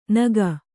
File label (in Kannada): "ನೆಕ್ಕು", Kannada